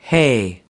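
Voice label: "hay", American English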